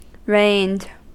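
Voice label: "rained", US English; pronunciations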